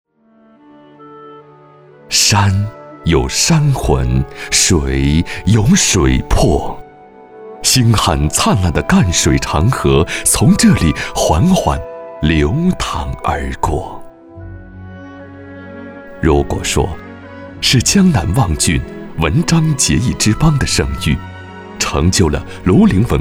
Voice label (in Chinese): 男, 专题; 庐陵景区